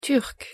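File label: "turc", French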